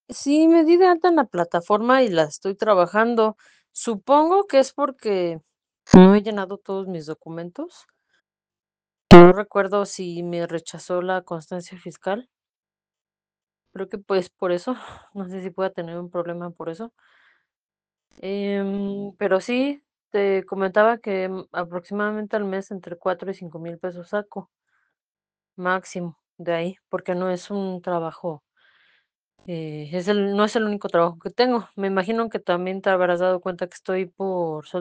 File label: whatsapp ptt